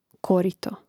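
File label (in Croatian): "korito", Hrvatski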